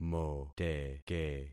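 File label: "moteke", concatenated syllables; Affixing experiment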